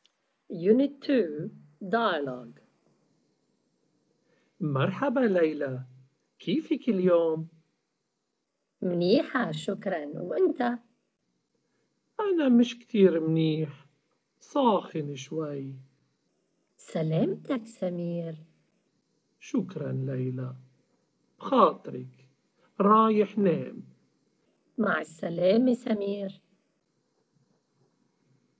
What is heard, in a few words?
dialogue, Arabic MSA